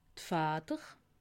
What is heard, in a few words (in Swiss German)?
"fatter", alsace